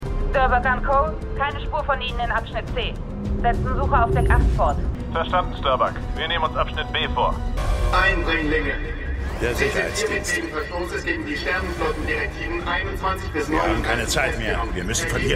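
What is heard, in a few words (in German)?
männliche Durchsage; Star Trek Picard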